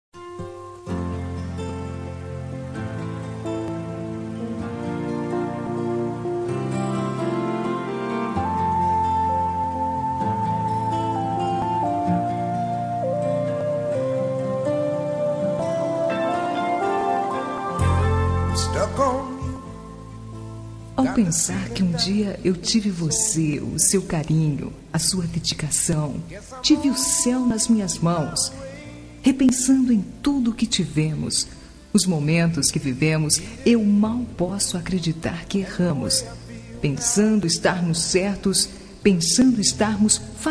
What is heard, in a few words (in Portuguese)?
Romântica Sensual